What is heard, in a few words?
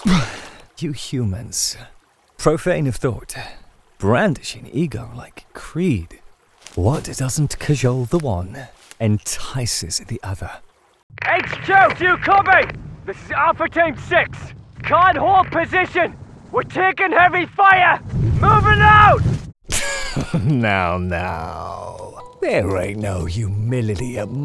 British Demo Reel